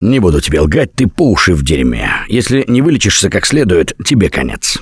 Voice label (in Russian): Revive Critical Friend, left4dead2 russian, Survivor Voice; Gambler